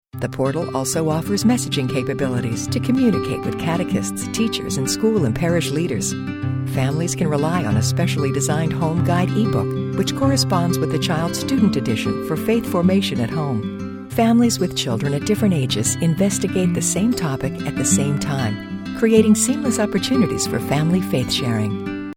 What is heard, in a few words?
Educational Friendly